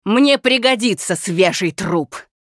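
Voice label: Hero Critical Hit Russian, Женщина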